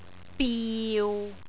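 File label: "biu6", Cantonese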